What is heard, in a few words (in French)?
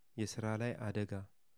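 "accident du travail", Amharic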